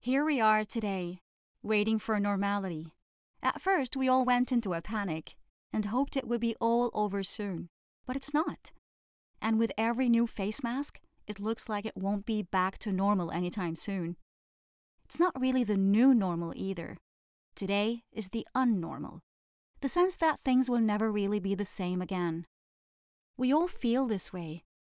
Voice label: English